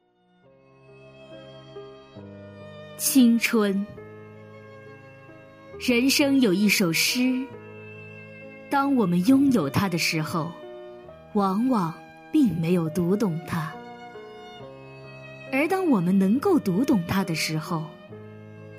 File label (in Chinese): 诗歌朗诵青春